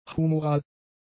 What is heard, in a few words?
"humoral", German; adjectives